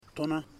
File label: "tona headband", Ipili